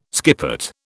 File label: "skippet", British